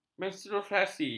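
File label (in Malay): "menstruasi", Malay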